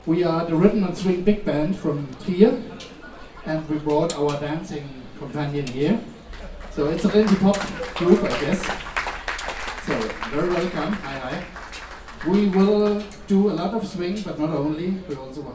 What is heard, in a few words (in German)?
Ansage